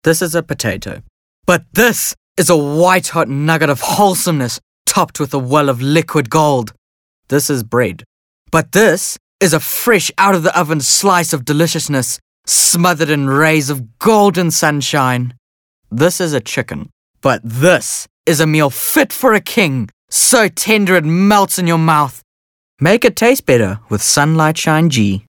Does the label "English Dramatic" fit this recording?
Yes